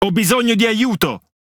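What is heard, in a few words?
Italian speech Quick Commands Need Support; World Of Warships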